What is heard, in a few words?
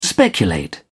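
"speculate", English, British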